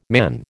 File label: "man", American